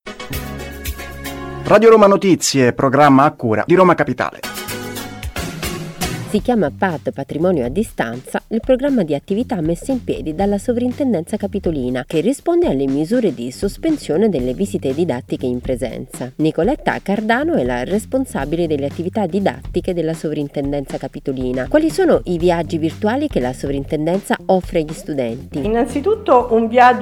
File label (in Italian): intervista; Sovraintendenza